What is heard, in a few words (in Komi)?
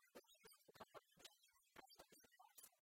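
лэбачьяс кокалӧмны челядьӧн дасьтӧм сёянсӧ, Komi, female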